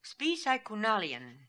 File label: "Spipsychoonalian", pronounciations; Fourth Way; Beelzebub